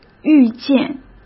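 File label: "预见", Mandarin Chinese; hsk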